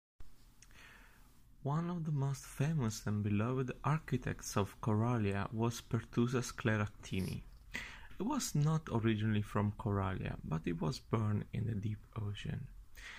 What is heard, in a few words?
English; wissenschaftliche kurzgeschichten